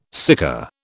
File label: "sika", British